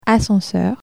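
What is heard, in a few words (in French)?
"ascenseur" French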